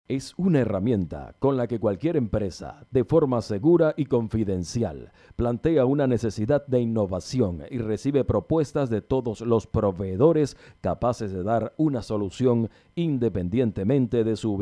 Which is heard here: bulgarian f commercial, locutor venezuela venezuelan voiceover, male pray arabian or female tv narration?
locutor venezuela venezuelan voiceover